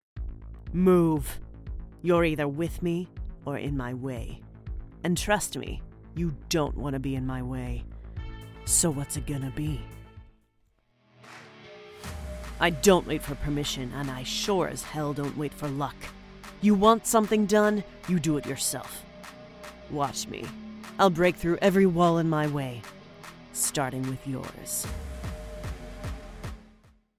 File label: Badass, voice over